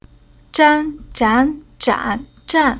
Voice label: "Zhan", Chinese

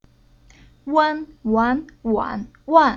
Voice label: "Wan", Chinese

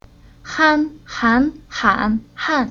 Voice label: "Han", Chinese

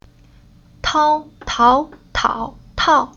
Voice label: "Tao", Chinese

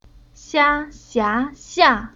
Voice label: "Xia", Chinese

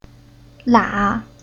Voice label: "Lia", Chinese

